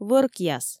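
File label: "вӧркъяс", Komi, female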